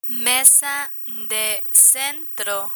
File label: "mesa de centro" Spanish; learn spanish